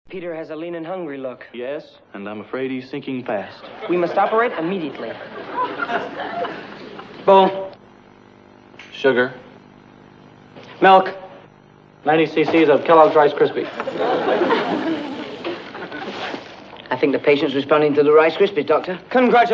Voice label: Promos Rice Krispies Commercial